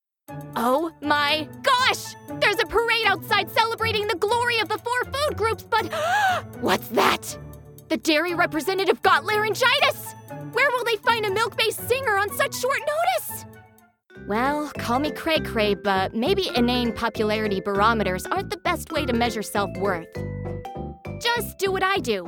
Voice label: animation character; Character Demo